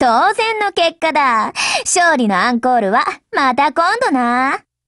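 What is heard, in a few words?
WIN Japanese, Voice; GF Alarm; AEK999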